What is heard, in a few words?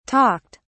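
"talked", British English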